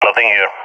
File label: sees area clear, balkan; vo; balkan epic